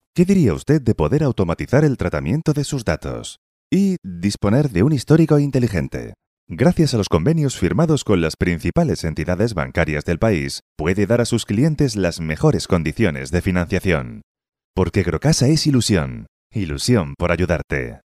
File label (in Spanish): Locucion Video Voz Off